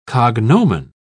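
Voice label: "cognomen", British English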